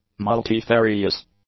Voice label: "multifarious", English; adjectives